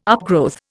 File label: "upgrowth", Indian